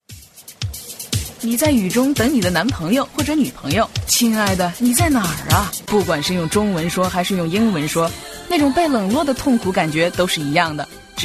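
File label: Mandarin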